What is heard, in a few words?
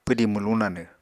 "pwdi mwluñ nanw", Apatani